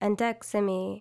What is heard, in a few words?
civ female go out against, hellenes